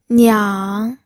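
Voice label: "niang", third tone, pinyin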